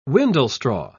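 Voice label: "windlestraw", British English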